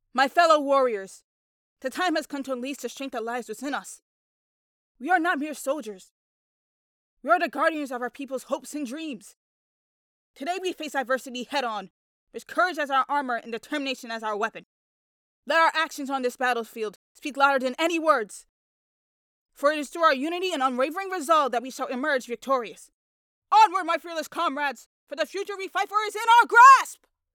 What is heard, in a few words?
Tough Female